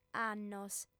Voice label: "annos", audiofem